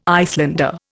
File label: "Icelander", Indian